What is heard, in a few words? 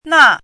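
"nà", Chinese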